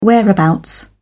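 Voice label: "whereabouts", British English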